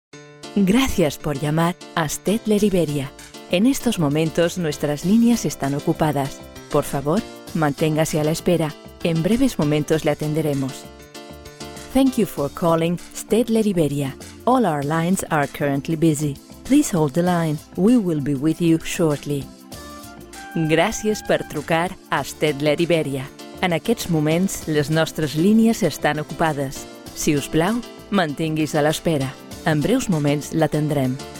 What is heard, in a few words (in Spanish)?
es phone; Staedler